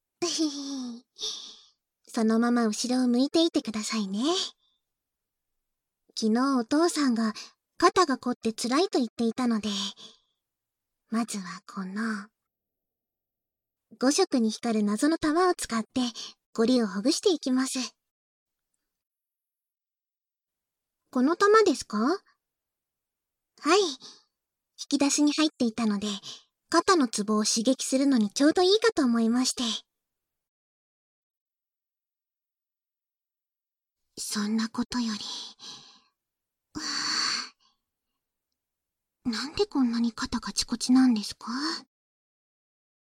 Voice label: 肩マッサージ（囁き重視編集 効果音なし）, ASMR; バイノーラルマイク収録 効果音なし

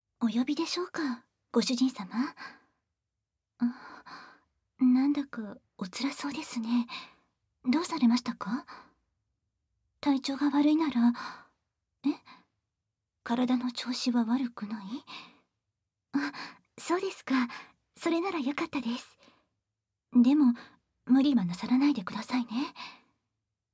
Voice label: 手コキ・洗脳ささやきボイス♪; ご奉仕メイドのあまあま子作りエッチ ～ご主人さま、気持ちいいことして癒やして差し上げますね♪～

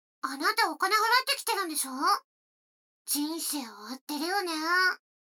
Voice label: 女の子台詞, ASMR

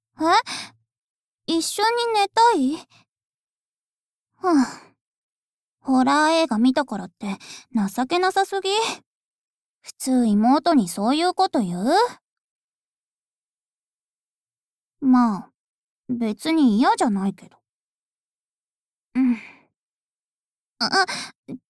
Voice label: 次女 おやすみボイス

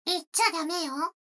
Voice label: 女の子台詞, ASMR

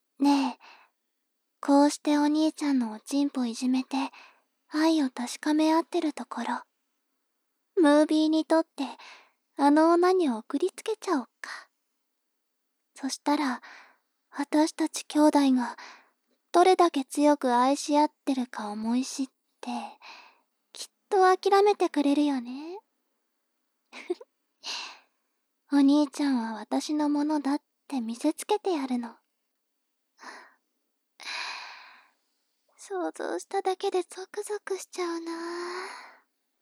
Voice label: 妹 台詞

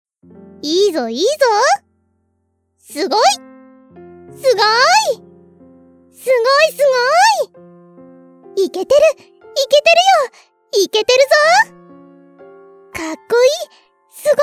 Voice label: 応援ボイスショート

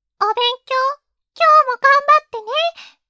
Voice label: 励ましボイス

